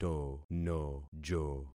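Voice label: "tonojo", concatenated syllables; Affixing experiment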